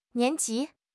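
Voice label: "nian2 ji2", Mandarin Chinese; HSK3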